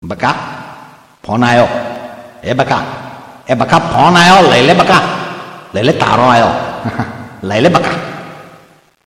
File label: baka phone aayo ahmedabad style gujarati; ringtone